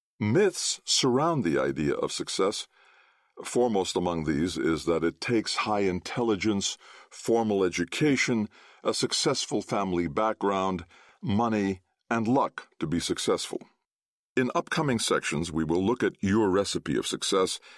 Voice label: Audiobook sample; How to Get out of Your Own Way